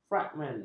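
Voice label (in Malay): "fragmen", Malay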